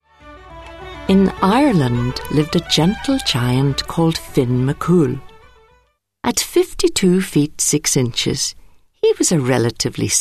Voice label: Irish